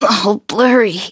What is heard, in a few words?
hit, en; Cookie Run